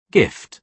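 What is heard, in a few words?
"gift", British English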